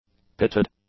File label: "pitted", english; adjectives